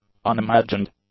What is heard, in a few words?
"unimagined", english; adjectives